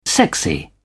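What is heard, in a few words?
"sexy", British English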